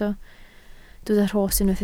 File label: "rhos", Welsh